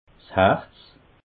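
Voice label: "herz", Alsatian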